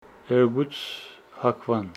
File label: Homshetsi tomorrow morning